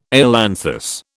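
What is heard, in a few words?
"ailanthus", British English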